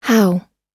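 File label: "how", American English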